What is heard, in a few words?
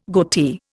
"goatee", Indian